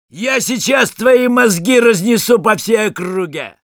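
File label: taunts, Russian; Sniper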